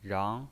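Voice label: "rang", Mandarin Chinese, second tone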